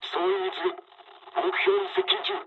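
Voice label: near waypoint mood low, speech japanese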